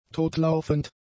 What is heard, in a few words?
"totlaufend", German; adjectives